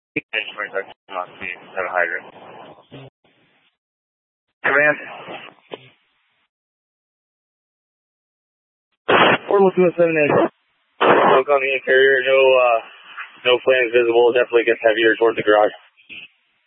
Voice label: Fire Dpts VHF 154.800 Patch, scanner; TOPAZ PD (TRWC); Mesa